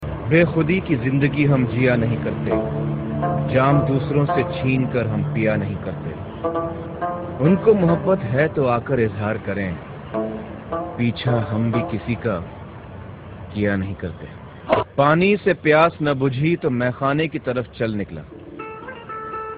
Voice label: Romantic Shayire

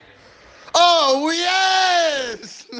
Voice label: Ohhh yesssss

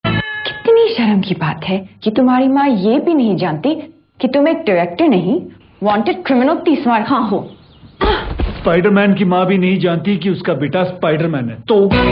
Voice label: Funny Dialogue

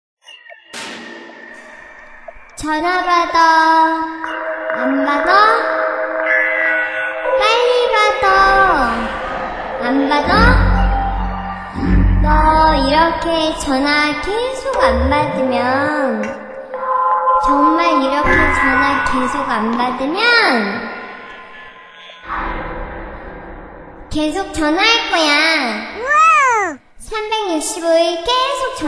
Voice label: Baby Horror Talking

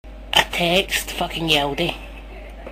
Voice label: Glasgow Ned Text, Glasgow

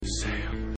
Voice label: whisper

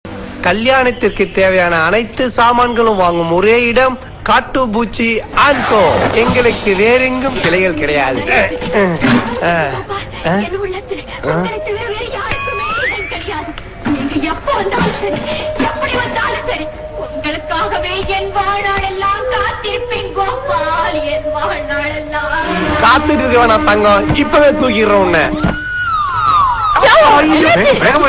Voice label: Comedy; Siruthai

